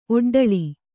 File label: "ಒಡ್ಡಳಿ", Kannada; oḍḍaḷi; = ಒಡ್ಡೞಿ.